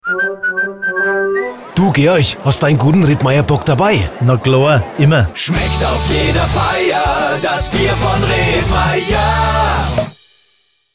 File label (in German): Dialogspot Jingle Abmischung; Rittmayer